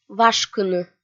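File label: "вашкыны", Komi, female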